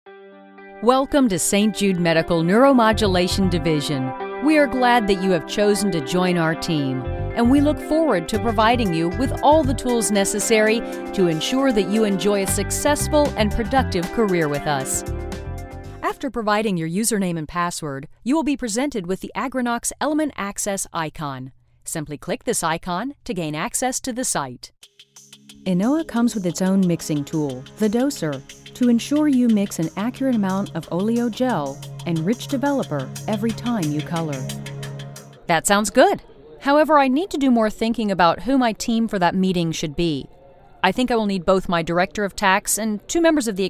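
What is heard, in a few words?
narration, female voice talent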